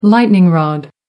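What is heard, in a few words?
"lightning rod", English, British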